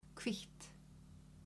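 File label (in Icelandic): "hvítt", Icelandic, female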